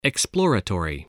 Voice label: "exploratory", voice

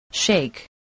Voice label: "shake", voice spelling

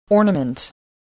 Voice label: "ornament", English voice

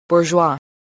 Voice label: "bourgeois", spelling

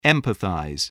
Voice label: "empathise", spelling

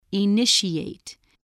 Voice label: "initiate", spelling voice

